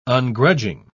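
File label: "ungrudging", British English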